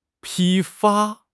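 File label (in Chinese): "批发", Chinese; HSK6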